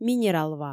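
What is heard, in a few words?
"минералва", Komi, female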